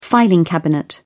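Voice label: "filing cabinet", English, British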